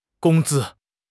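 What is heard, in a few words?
"gong1 zi1", Mandarin Chinese; HSK4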